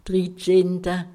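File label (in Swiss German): "dritzehnta", Alsatian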